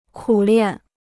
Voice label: "苦练", Chinese

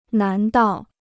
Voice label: "难道", Chinese

